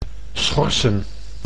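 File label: "schorsen", Dutch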